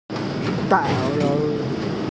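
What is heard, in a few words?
"da4lou2", Mandarin Chinese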